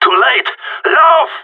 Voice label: bomb fallback, radio; GSG9